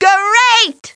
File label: GREAT MOUSE, CARTOON VOICES